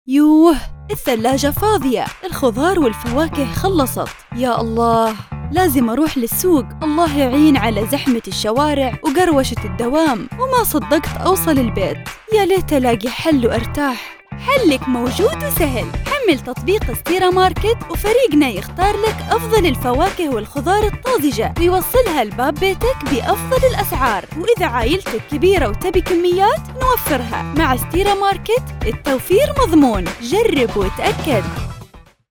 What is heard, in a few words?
Arabic others